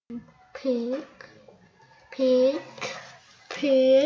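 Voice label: "pick", spoken word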